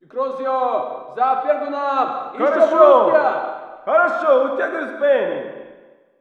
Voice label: walla rus mountain conv